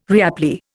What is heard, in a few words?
"reapply", Indian